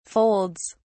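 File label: "folds", British English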